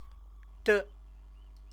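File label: "de", Chinese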